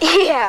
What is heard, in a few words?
"yeah" kid; ooh ahh